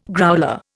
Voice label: "growler", Indian

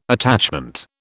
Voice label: "attachment", British

